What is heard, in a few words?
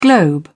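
"globe", British English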